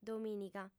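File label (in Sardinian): "domìniga", female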